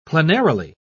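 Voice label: "plenarily", British English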